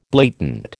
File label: "blatant", American